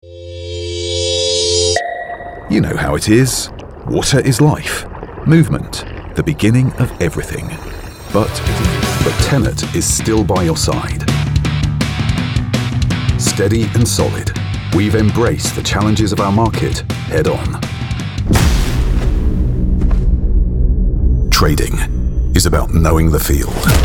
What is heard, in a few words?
Commercial Reel, London, Natural, Conversational, Authoritative Male